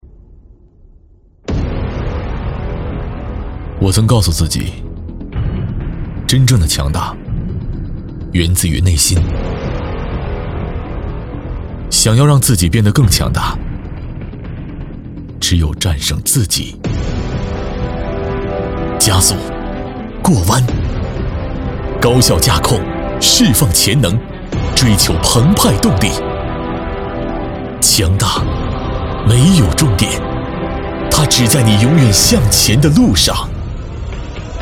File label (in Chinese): 男 广告 汽车类; 全新别克昂科威